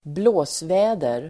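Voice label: "blåsväder", Swedish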